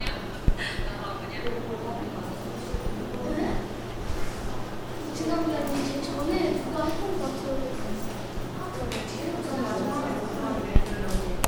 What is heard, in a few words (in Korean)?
친구소리